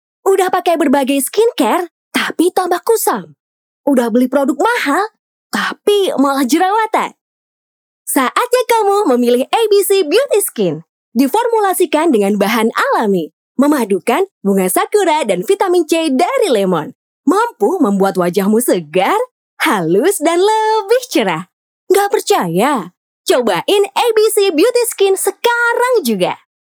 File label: CASUAL; INDONESIA